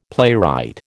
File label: "playwright", American